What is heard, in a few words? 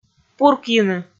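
"пуркйыны", Komi, female; kpv audio dict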